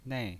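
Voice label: "nei", pinyin voice, Mandarin Chinese, fourth tone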